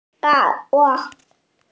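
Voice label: "er3 duo5", Mandarin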